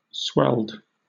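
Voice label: "swelled", British English; pronunciation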